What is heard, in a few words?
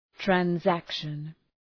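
"transaction", English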